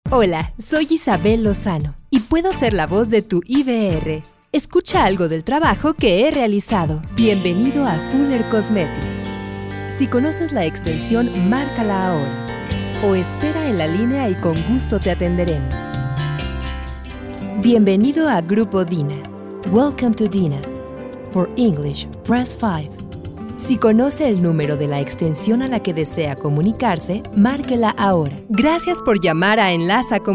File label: IVR